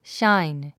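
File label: "shine", English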